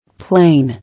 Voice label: "Plane", English